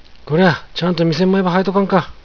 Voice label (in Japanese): こりゃぁ、ちゃーんと店ん前ばはわいとかんか。, 有田弁; こらっ、きちんと店の前を掃いておかないか。; はわく; 掃く